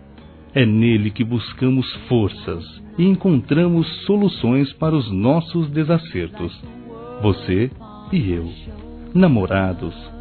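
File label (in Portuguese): homenagem ao amor Masculino, Telemensagens; Dia Dos namorados; Telemensagens 2017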